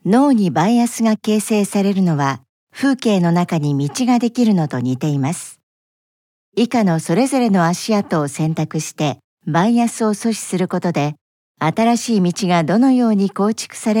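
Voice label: Japanese elearning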